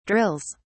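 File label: "drills", British English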